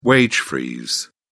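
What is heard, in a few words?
"wage freeze", British English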